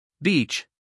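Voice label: "beach", American English, male; everyone-can-use-english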